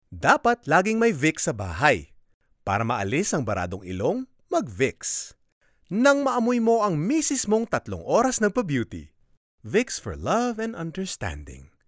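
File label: Tagalog Male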